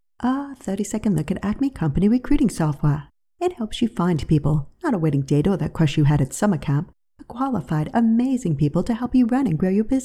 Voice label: British Explainer Video

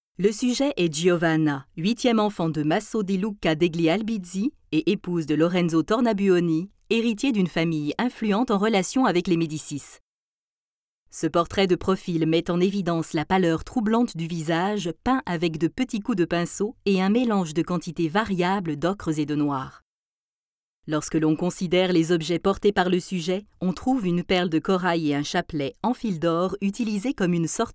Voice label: Audioguide, voice; Thyssen Madrid; Ghirlandaio; Giovanna Tornabuoni

